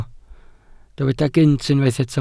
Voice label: "gynt", Welsh

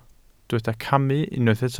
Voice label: "camu", welsh

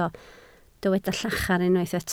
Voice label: "llachar", Welsh

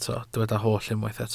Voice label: "holl", Welsh

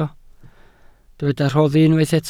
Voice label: "rhoddi", Welsh

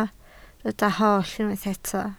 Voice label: "hollol", Welsh

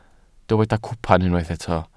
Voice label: "cwpan", Welsh

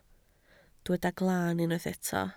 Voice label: "glaan", Welsh

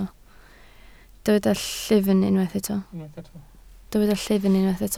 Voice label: "llyfn", Welsh

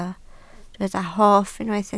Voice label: "hoff", Welsh

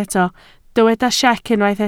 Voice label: "siec", Welsh

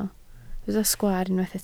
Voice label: "sgwaar", Welsh

